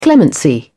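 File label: "clemency", British English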